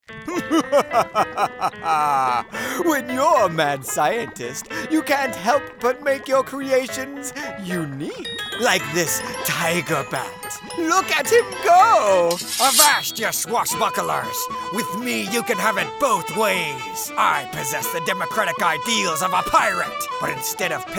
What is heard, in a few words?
Character